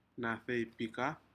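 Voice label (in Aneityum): "nadeipikad", Aneityum word, shellfish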